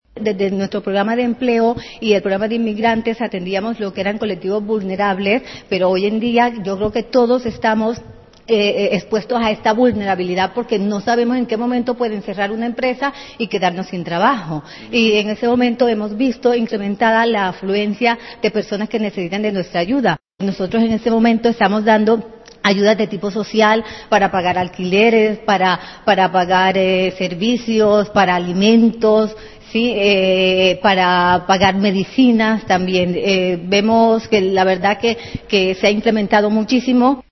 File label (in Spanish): noticias